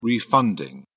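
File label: "refunding", British English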